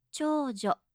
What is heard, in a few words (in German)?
"chojo", japanische maedchennamen